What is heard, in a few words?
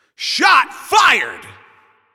Shot On Goal, Announcer